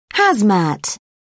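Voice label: "hazmat", British English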